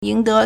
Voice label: "ying2 de2", Chinese

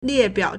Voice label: "lie4biao3", Chinese

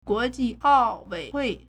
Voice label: "guo2ji4ao4wei1hui4", Chinese

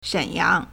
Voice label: "shen3yang4", Chinese

